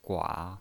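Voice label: "gua", Mandarin Chinese, third tone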